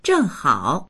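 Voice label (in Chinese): "zheng4hao3", Mandarin Chinese; zhènghǎo; 正好; ちょうど、ぴったり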